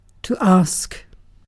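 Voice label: "ask", British English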